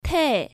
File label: "tae", Korean